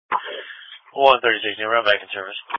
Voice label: Police Patrol 1 (Dispatch)